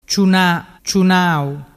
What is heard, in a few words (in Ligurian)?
"ciuna ciunau", dialettu